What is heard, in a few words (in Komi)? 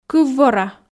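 "кыв-вора", Komi, female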